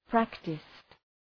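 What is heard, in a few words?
"practised", English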